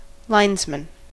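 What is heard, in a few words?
"linesman", US English; pronunciations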